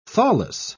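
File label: "thawless", British English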